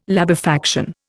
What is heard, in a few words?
"labefaction", Indian